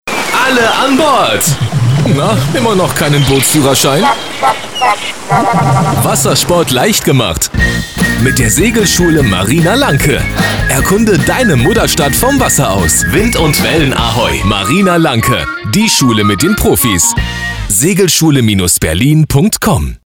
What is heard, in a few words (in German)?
Segelschule Berlin Radiospot; Marina Lanke